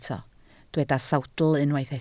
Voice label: "sawdl", Welsh